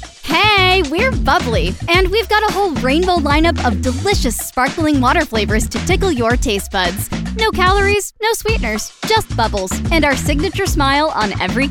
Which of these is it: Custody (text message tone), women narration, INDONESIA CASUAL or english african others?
women narration